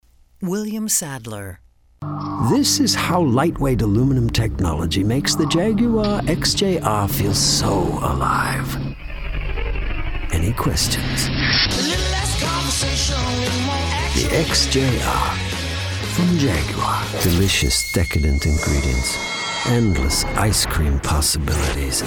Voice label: celebrity commercial

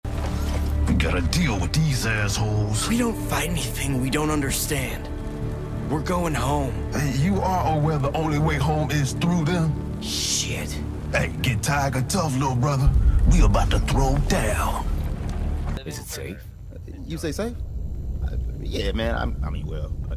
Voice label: animation men interactive, voices men

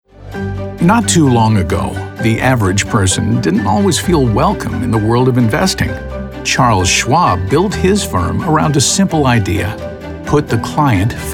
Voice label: narration male corporate

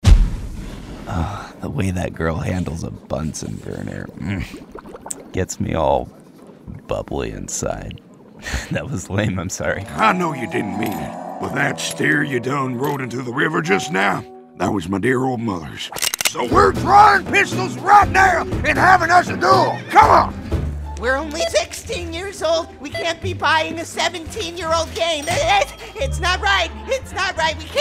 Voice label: animation men

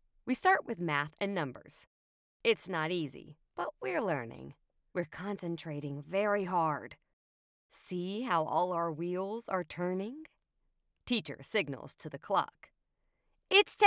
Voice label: audiobooks women children

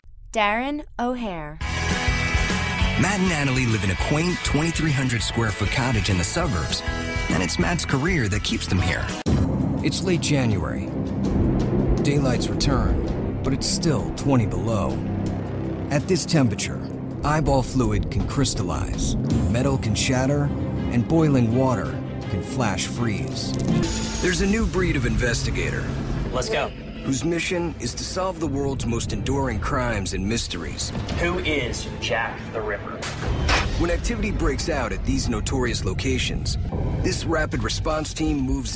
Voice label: narration

